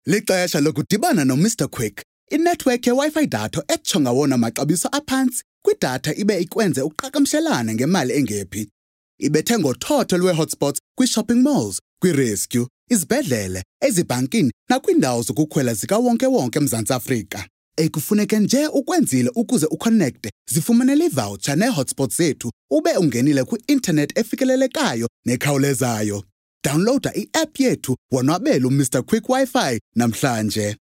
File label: Xhosa Conversational; OSM Talent